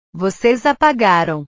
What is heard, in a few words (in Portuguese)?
"voces apagaram", portugues; Ustedes borraron